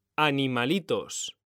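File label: "animalitos", Spanish, male; mekanta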